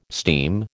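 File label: "steam", American English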